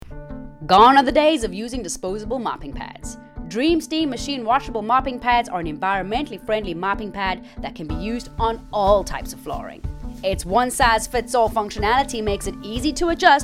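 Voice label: Retailer Voice Over American Accent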